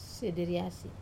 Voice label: "deriasi", Wamesa; be close to